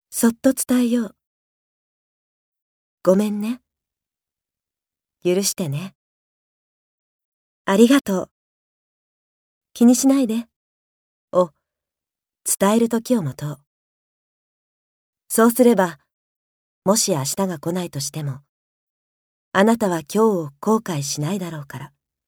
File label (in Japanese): 語りかけナレ